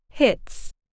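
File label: "hits", English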